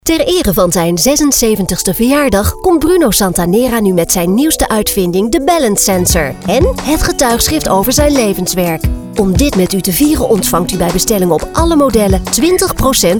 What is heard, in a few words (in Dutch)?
met muziek